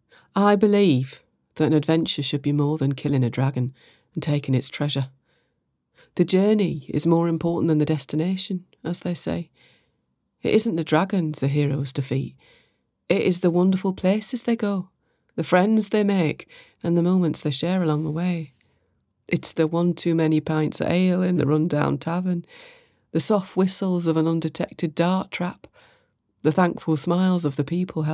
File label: adventurer (northern)